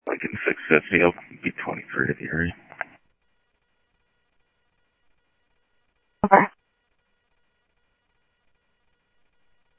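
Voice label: Sheriff East County, scanner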